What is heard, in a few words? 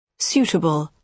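"suitable", British English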